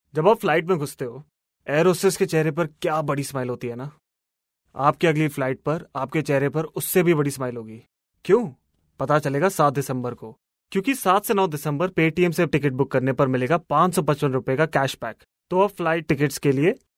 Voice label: hindi ad, male voice; paytm